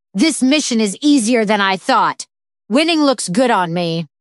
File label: happy; fertig classic games